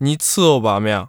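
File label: wenzhou, Chinese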